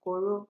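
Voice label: "coro", Nigerian Pidgin, female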